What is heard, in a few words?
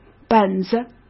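"本子", Mandarin Chinese; hsk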